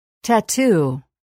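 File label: "tattoo", English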